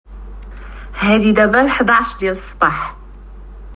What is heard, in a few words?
Morrocan